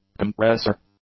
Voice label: "compressor", English; nouns